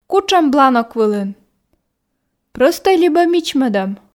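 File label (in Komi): кутшӧм бланок вылын прöстӧй али мичмӧдӧм, Komi, female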